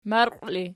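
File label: "marqiw" ergative, Archi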